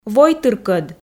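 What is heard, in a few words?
"войтыркӧд", Komi, female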